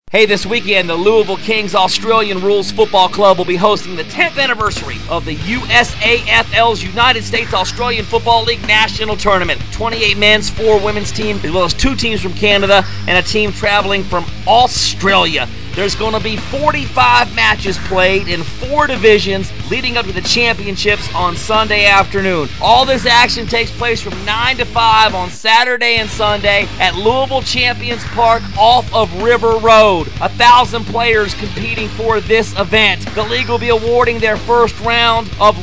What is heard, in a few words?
Radio Commercial; 2007 US Nationals